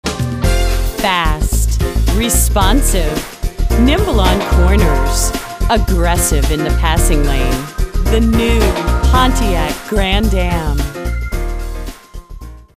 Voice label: audio promo spot; Pontiac Grand Am